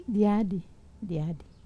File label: "diadi", Wamesa